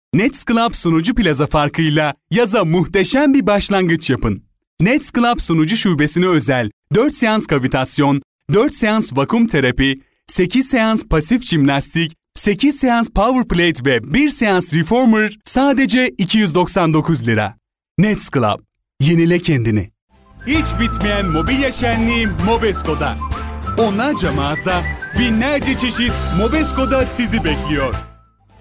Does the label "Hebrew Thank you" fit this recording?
No